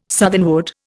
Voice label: "southernwood", Indian